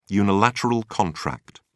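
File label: "unilateral contract", British English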